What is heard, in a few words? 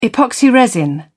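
"epoxy resin", British English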